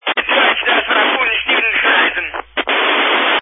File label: Family Radio Service (FRS)